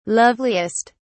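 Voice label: "loveliest", British English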